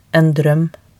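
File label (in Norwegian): "en drøm", Norwegian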